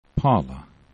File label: "pala", esperanto